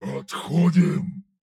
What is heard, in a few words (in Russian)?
"Отходим!", Фраза; Турбосвин